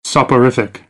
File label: "soporific", American, English